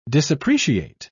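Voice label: "disappreciate", British English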